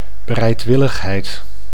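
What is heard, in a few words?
"bereidwilligheid", Dutch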